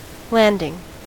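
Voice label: "landing", American English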